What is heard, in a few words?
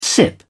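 "sip", British English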